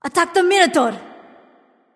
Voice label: vox attack m; smite; nemesis; voicepack